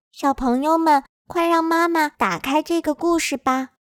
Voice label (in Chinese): 童声变声打开故事